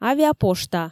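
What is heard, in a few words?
"авиапошта", Komi, female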